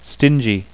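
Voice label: "stingy", English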